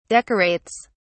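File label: "decorates", British English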